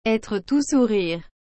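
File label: "etre tout sourire", French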